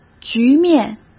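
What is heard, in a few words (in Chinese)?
"局面", Mandarin Chinese; chinese-flashcards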